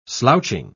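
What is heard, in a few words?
"slouching", British English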